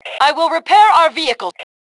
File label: Self Repair Vehicle, Female; T2 Female 02